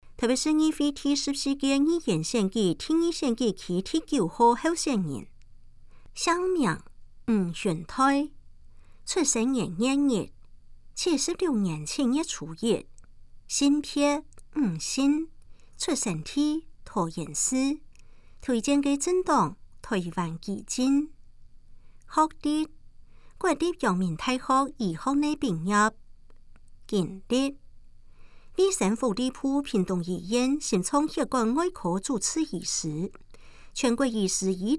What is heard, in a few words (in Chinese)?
客語; 臺北市; 有聲公報; 市議員; 臺北市議會第14屆議員第2選舉區 (內湖、南港區)候選人介紹